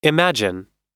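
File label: "imagine", US English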